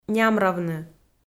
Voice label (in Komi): "нямравны", Komi